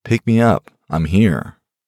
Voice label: man pick me up im here, male voice ringtone